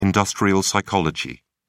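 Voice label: "industrial psychology", British English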